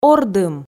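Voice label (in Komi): "ордым", Komi, female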